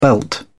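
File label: "belt up", British English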